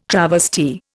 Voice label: "travesty", Indian

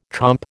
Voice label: "chomp", American

